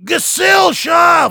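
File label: incoming, German; Heavy; "Gesellschaft!"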